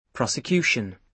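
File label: "prosecution", British English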